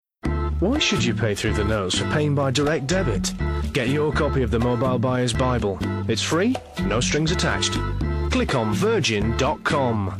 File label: COMMERCIAL